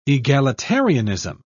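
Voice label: "egalitarianism", British English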